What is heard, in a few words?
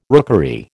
"rookery", American